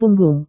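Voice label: "punggung", Indonesian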